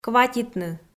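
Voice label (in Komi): "кватитны", Komi, female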